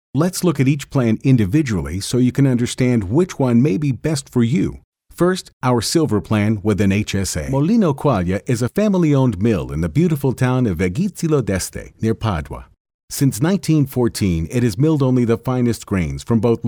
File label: Business Voice